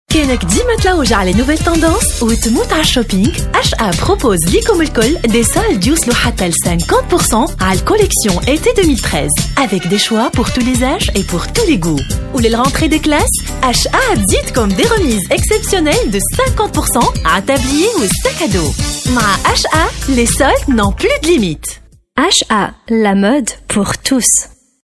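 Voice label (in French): Tunisian French, female, commercial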